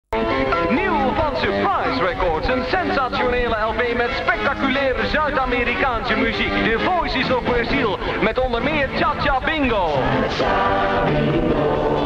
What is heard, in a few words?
commercial